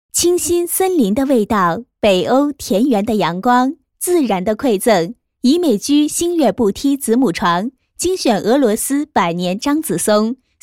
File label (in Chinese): 女, 品牌宣传 松木家具 宣传配音; 宜美居 松木家具领导品牌宣传配音